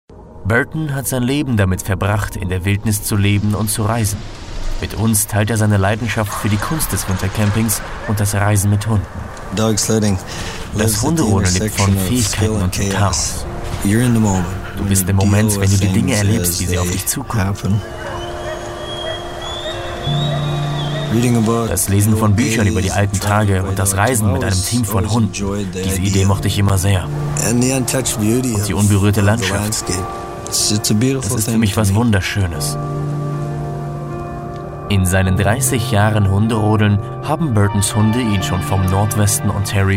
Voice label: Doku; LOFT VOICES

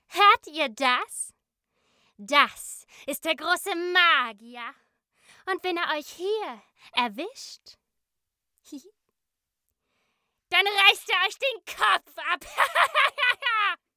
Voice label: Trickstimme klein; LOFT VOICES

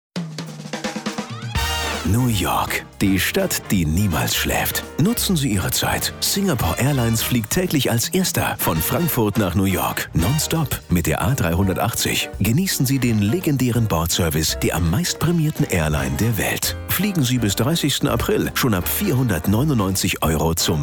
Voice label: Werbung Showstyle; Singapore Airlines

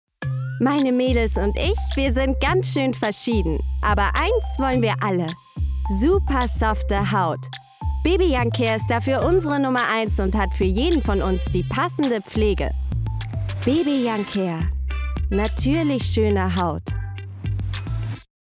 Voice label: werbung; bebe

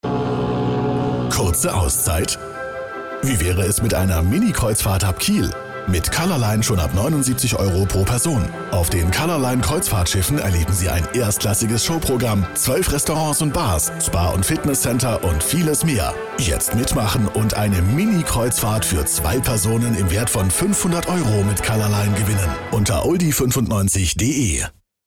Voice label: funk lokal kreuzfahrt; kiel; LOFT VOICES

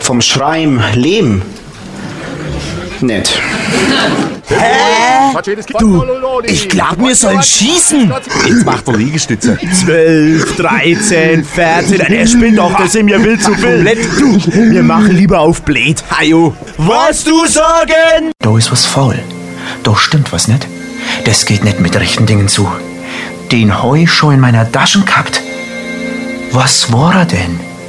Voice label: fraenkischer Dialekt Hoerspiele

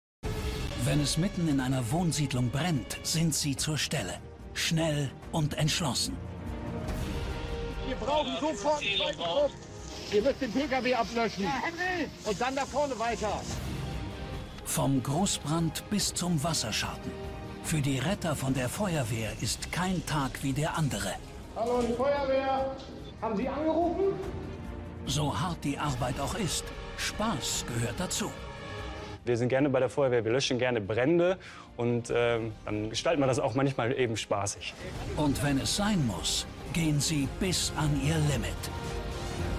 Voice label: Voiceover Kommentar; 112 – Feuerwehr im Einsatz; DMAX

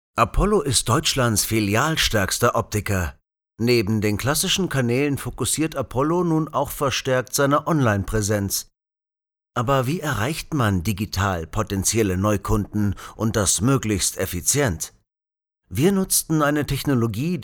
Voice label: Imagefilm; Apollo

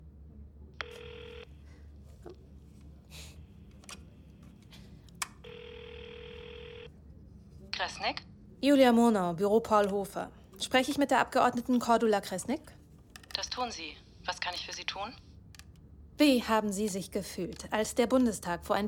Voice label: Hörspiel; Glashaus Kresnik

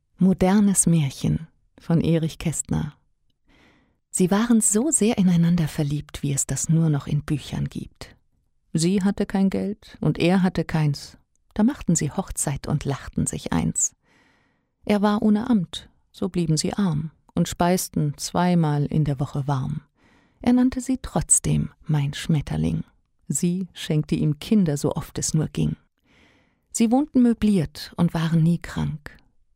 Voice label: erzaehlung

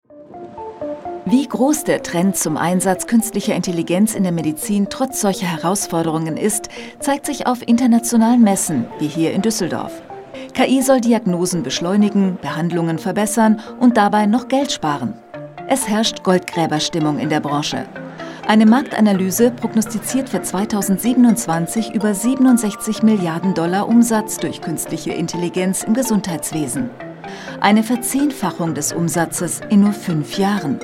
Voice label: Doku; WISO-Zukunft-der-Medizin-ZDF

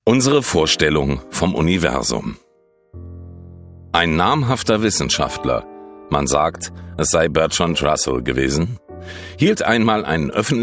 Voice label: mit Musik; Universum

